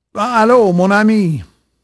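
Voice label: French male, voice; Pirates Of The Caribbean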